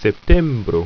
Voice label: "septembro", ido

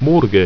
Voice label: "morge", ido

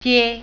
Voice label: "street", Mandarin

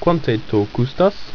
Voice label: "quanteto", ido

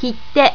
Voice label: kitte, japanese

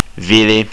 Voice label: fruit, estonian

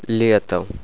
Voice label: "summer", Russian

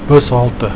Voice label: "Bushalte", Afrikaans; Bus station

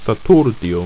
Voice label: "saturdio", Ido

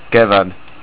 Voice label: Spring, estonian

